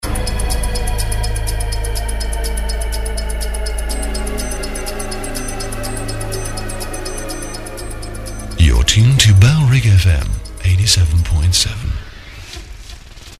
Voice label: you're tuned to 87.7 (male, sweep bed), jingles; bfm; bailrigg fm; bfm jingles ads RSL 1994